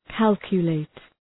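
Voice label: "calculate", English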